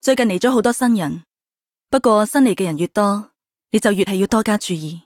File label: Cantonese; Hoshiguma